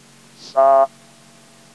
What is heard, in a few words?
fricative